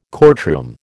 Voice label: "courtroom", American